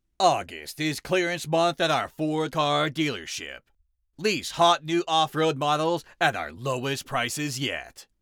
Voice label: Commercial sample Announcer, Voice Actor